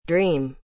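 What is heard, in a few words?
"dream", English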